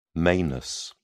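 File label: "manus", English, British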